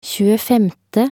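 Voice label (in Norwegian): "tjuefemte", Norwegian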